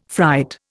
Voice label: "fright", Indian